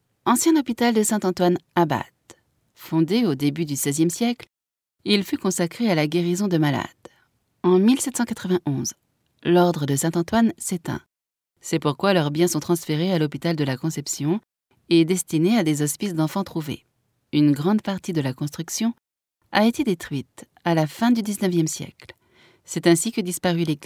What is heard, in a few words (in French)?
audioguia, frances; audioguia baeza